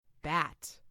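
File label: "bat", English; FoodChain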